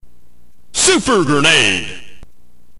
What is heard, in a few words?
narrator